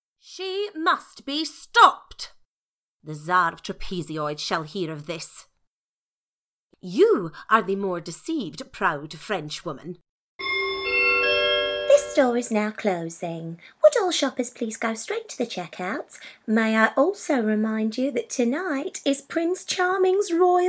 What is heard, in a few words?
Animation